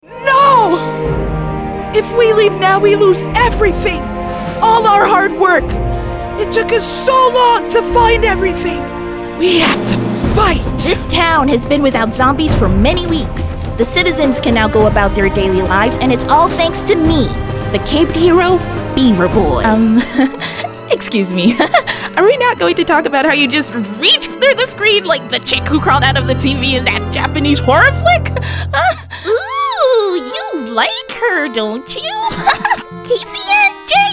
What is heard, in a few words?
Animation VO